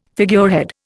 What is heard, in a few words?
"figurehead", Indian